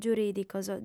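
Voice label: "giurìdicos", Sardinian, female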